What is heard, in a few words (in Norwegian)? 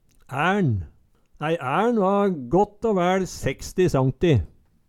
"ærn", Numedalsmål